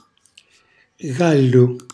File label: "gallu", Calabrese; gallo; cucina calabrese; Glossario